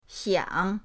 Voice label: "xiang3", pinyin